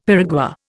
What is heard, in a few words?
"piragua", Indian; Dictionary